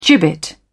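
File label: "gibbet", British English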